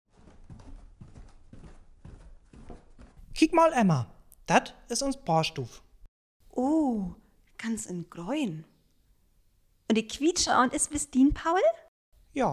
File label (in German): Dialog, niederdeutsch